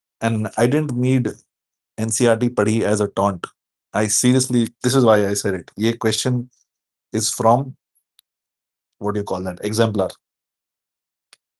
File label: voice message